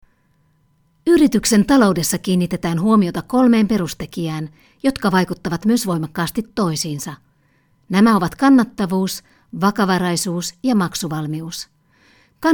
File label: Finnish, F, eLearning